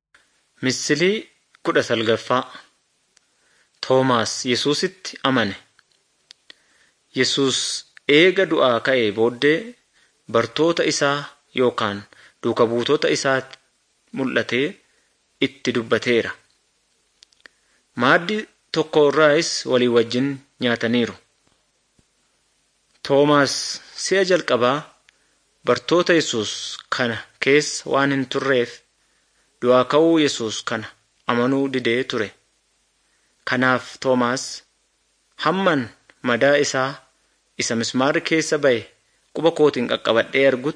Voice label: good news oromo wellega